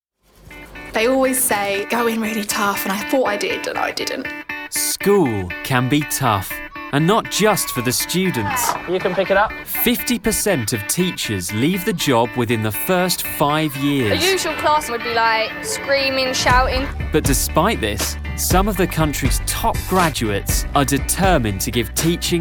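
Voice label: narration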